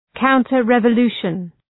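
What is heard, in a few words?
"counter revolution", English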